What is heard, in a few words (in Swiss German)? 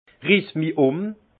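"rissmium", alsace Haut-Rhin; vin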